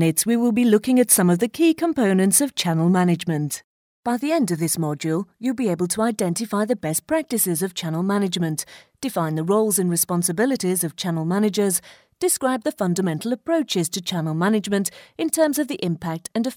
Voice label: E-Learning